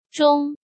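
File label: "Zhōng", Chinese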